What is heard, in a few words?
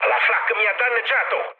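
Italian damaged aa mood high, speech; War Thunder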